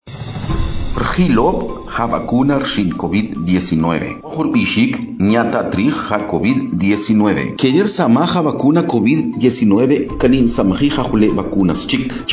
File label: Spot Tzutujil